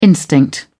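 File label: "instinct", British English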